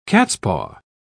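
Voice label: "cat's-paw", British English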